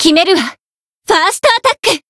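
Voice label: variable chant, Japanese; hisumena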